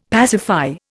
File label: "pacify", Indian; Dictionary